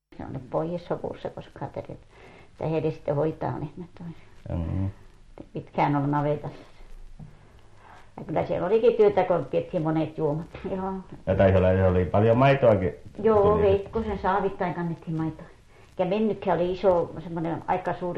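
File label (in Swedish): Övertorneå Norrbotten, dialekter; Folkminnen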